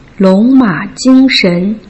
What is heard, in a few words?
"long ma jing shen", Putonghua